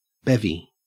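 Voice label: "bevy", Australian English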